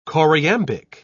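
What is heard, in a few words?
"choriambic", British English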